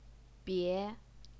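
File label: "bie", Mandarin Chinese, second tone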